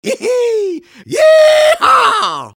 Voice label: engineer battlecry; svencoop addon; tfc; player